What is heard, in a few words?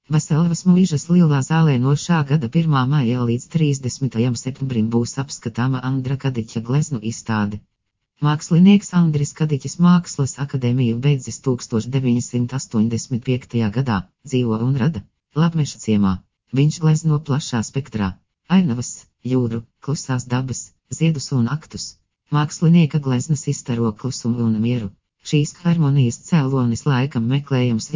Synthesized Audio